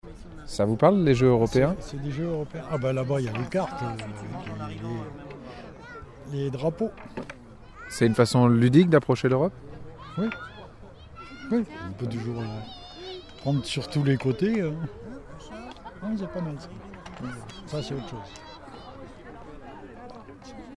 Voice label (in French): Commentaire; Jeux Europeens; Semaine Europe 2010; Bouchemaine